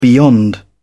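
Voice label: "beyond", British English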